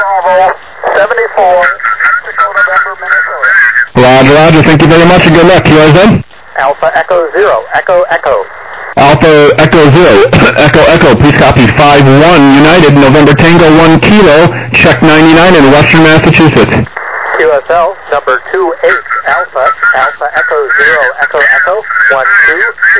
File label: USB 21MHz